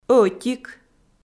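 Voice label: "ӧтик" Komi, female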